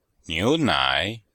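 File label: "niu2nai3", Chinese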